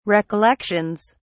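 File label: "recollections", British English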